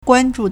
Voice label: "guan1zhu4", Chinese